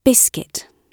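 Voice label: "biscuit" spoken, British English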